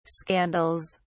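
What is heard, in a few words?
"scandals", British English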